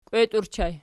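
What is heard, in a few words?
"kweti" ergative plural lips, Archi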